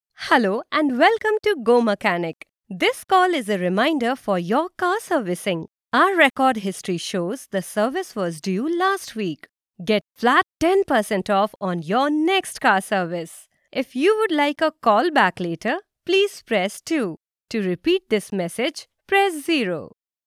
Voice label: English IVR